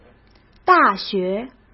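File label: "大学", Mandarin Chinese; chinese flashcards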